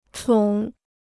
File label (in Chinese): "宠", Chinese